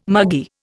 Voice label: "muggy", Indian